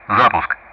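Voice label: Speech Sound Russian; DCS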